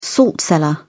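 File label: "salt cellar", British English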